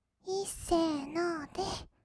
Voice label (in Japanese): "いっせーのーで", kawaii voice; 音ゲー